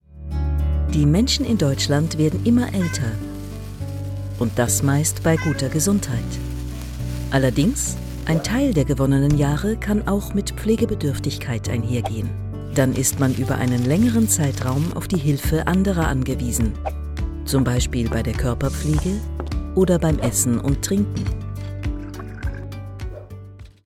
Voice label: erklaerfilm praevention in der pflege; Muttersprache Deutsch

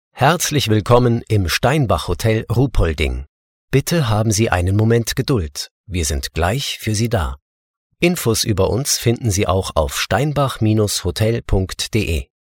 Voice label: Telefonansage

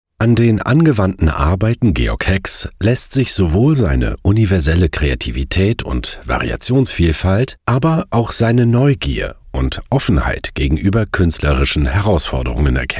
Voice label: sachtext audioguide

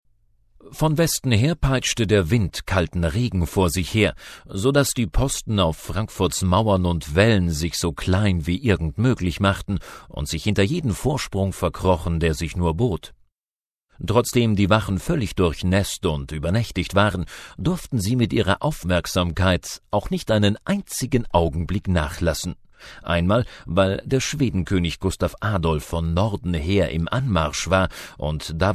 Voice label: hoerbuch

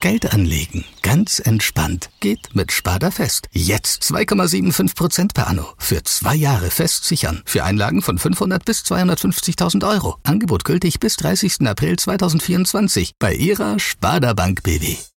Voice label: werbung; spardabw; Muttersprache Deutsch

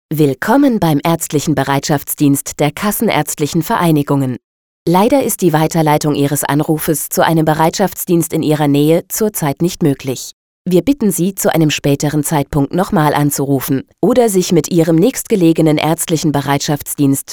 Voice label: telefon; kassennrztliche vereinigung

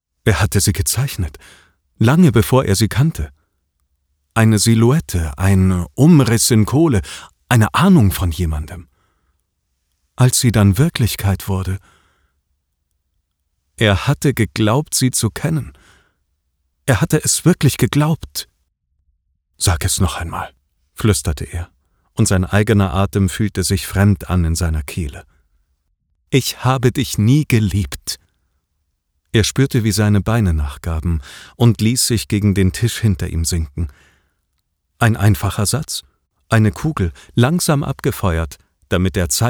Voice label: horbuch lawine

